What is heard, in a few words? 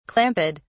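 "clamped", British English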